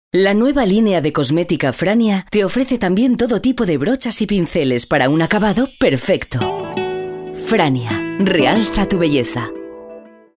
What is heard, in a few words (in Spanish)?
voz Femenina, ESPANOL; ofertas vigentes; GENERICAS